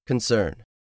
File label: "concern", english; 3000 words